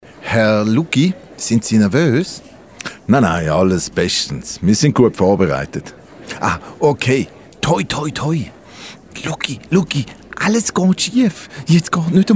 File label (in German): Sprecher Eigenwerbung